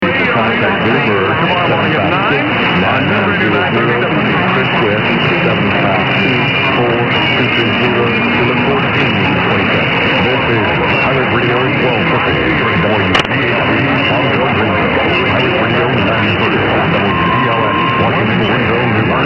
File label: pirate radio; wdlx; washington nc